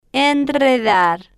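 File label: "enredar", Spanish, female, slow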